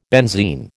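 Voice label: "benzene", American English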